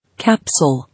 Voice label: "Capsule", English

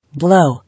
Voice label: "Blow", English

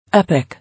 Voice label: "Epic", English

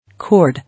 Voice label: "Cord", English

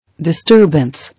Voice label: "Disturbance", English

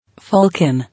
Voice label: "Falcon", English

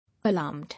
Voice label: "Alarmed", English

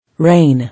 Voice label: "Rain", English

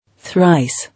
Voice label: "Thrice", English

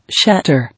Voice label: "Shatter", English

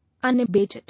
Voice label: "Unabated", English

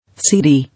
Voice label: "Seedy", English

